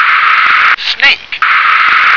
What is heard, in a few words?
"snake", English